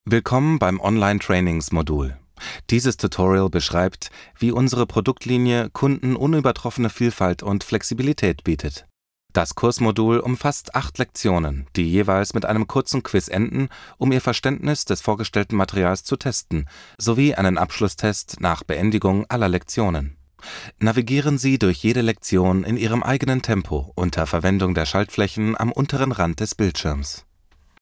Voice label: GERMAN Male